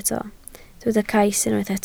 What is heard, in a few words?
"cais", Welsh